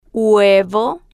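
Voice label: "huevo", slow, spanish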